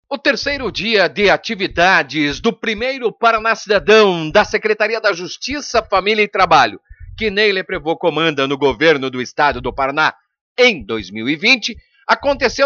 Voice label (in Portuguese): locutor